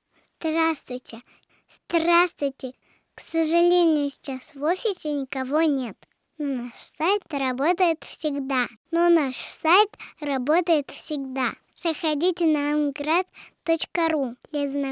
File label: ivr; Amgrad